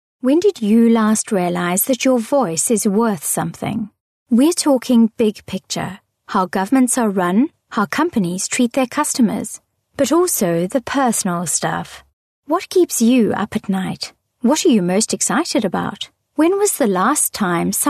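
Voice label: Afrikaans Female English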